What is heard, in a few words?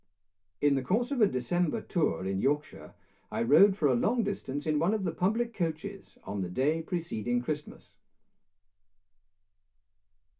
male speech english